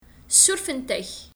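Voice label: Kabyle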